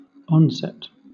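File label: "onset", British English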